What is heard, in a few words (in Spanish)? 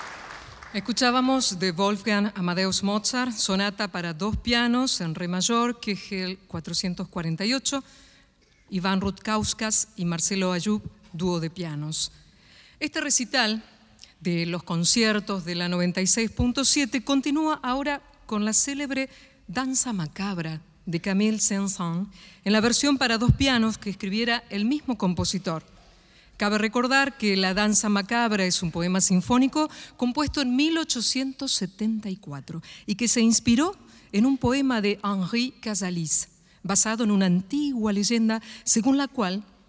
Locutora